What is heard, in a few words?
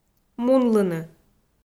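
"мунлыны", Komi, female; kpv audio dict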